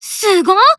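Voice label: Voice Emo Praise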